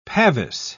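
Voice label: "pavis", British English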